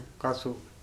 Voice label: "pain", Korku

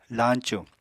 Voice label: "lañcu", Apatani

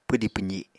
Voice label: "pwdi pwzi", apatani

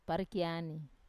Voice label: "parekiani", cassava, Wamesa

